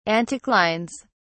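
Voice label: "anticlines", English, British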